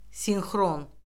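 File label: "sinxron", Azerbaijani; Orfoqrafiya Lüğəti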